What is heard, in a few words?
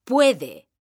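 "puede", Spanish, female; mekanta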